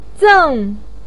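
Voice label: "zeng4" Chinese syllable, pinyin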